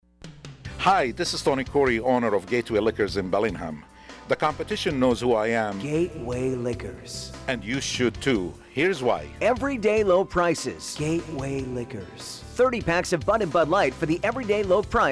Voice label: Whispers; Gateway Liquors